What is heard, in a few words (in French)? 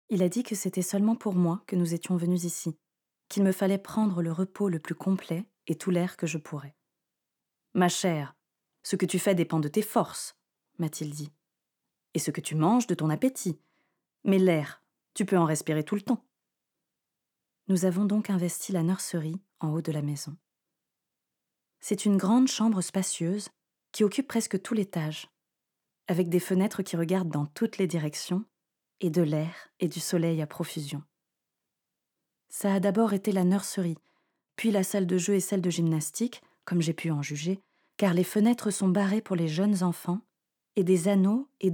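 LIVRE AUDIO, comédienne; « Sorcières : la puissance invaincue des femmes » de Mona Chollet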